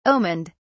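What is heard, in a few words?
"omened", English, British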